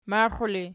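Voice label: "maxiu" ergative, Archi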